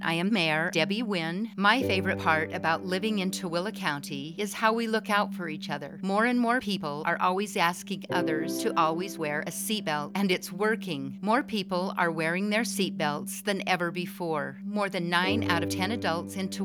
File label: Keyleader Radio Ad; TOOELE